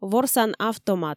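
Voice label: "ворсан автомат", Komi, female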